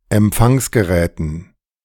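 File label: "Empfangsgeräten", German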